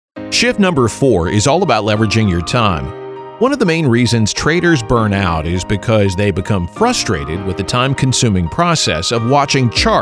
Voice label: eLearning